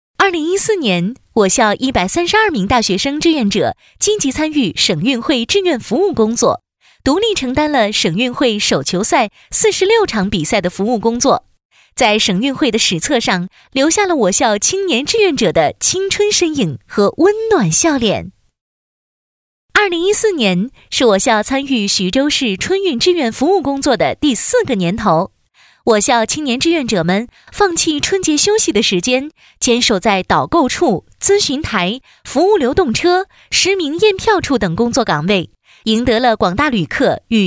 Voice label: 女 专题 阳光 自信 年轻解说

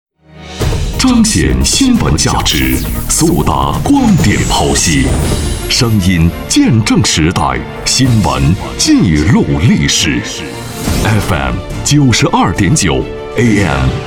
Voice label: 男, 片花, 样音; 咨询生活

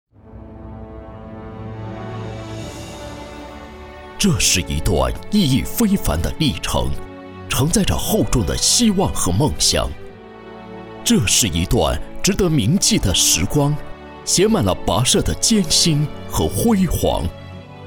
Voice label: 男 专题 企业会计师事务所 恢弘大气

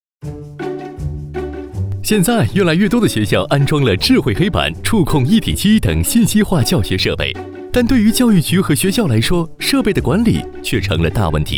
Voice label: 男 中文 校园设备; 海信

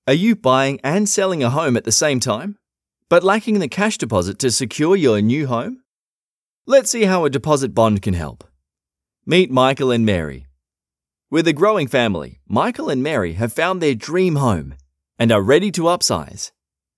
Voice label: 外籍英男, 家用电器介绍, 年轻阳光

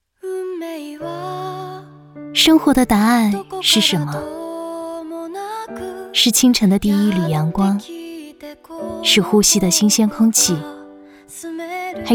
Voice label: 女 短视频旁白 深情自述; 本我自我

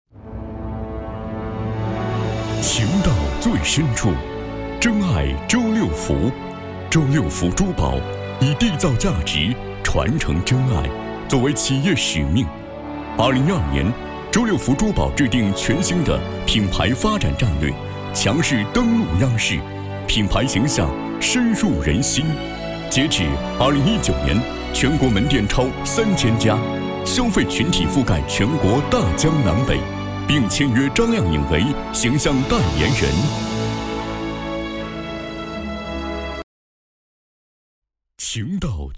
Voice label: 男-高端珠宝广告

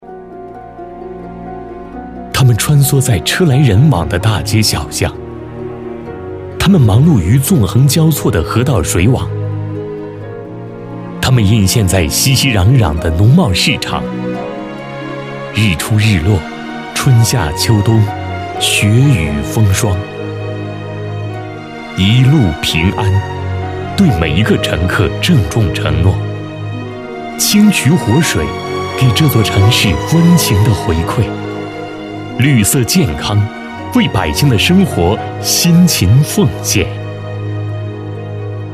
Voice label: 男, 专题, 人物专题

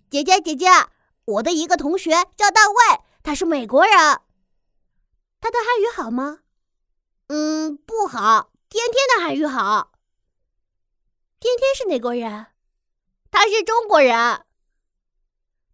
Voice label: 女 男童女童

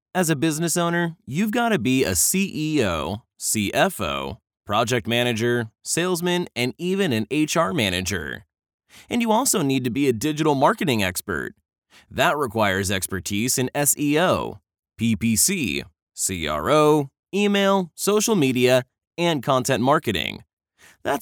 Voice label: 外籍英男, 干音

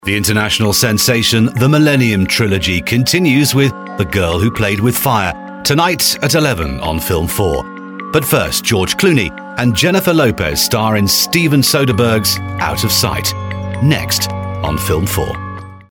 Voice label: 外籍, 电影宣传; 龙纹身的女孩; 英国广播公司电台、电视台和英式英语配音

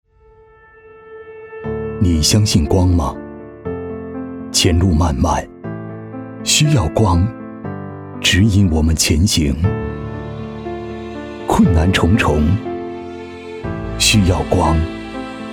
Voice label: 男, 走心旁白; 萧山区七一党建

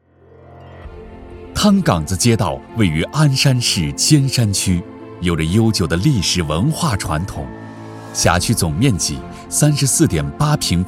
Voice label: 男 汇报专题 街道 大气 轻松 介绍

